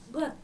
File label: "gɔ̈th", Dinka